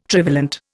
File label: "trivalent", Indian